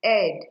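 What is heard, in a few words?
"head", Nigerian Pidgin, female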